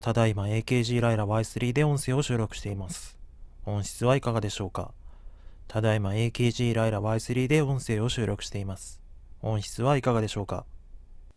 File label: AKG Lyra Y3 sound